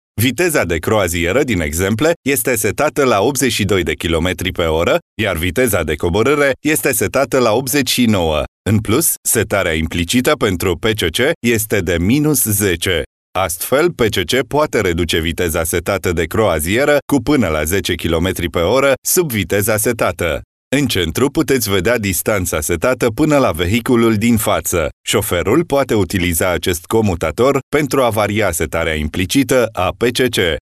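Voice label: Romanian male elearning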